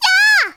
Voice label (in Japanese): やーあ, Voice; Voice&Breath; Vocaloid 6.5.1; Otomachi; VOCALOID6 AI OtomachiUna Spicy